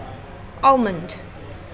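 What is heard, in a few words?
"almond", British English, female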